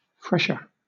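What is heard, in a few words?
"fresher", British English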